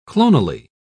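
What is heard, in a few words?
"clonally", British English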